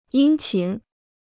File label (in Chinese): "殷勤", Chinese